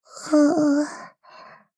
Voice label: sad